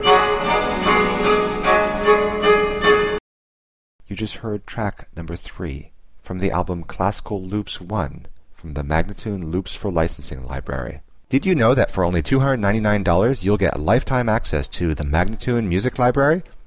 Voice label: american baroque spoken